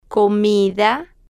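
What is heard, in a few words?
"comida", slow, Spanish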